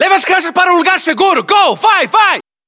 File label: hostile burst, battlechatter